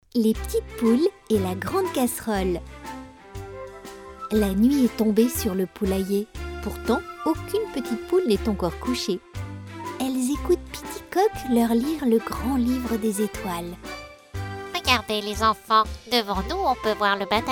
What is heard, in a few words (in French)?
NARRATION CARTOON JOUE, AUDIOBOOK; PTITES POULES